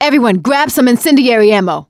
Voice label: incend ammo, voice; survivor; producer